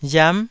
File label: "riam9", taiwanese